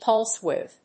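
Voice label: "pulse with", English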